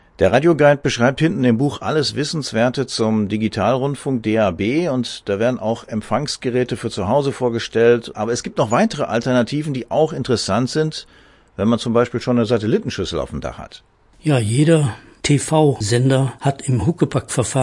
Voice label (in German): interview; radio guide; 30 jahre